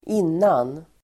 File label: "innan", Swedish